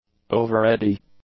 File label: "overready", English; adjectives